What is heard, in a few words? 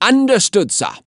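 Voices Units Allied Grenadier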